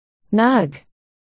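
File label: "nug", spoken word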